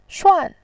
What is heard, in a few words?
"shuan4", voice